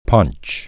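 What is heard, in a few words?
"punch", English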